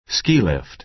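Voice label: "ski lift", American English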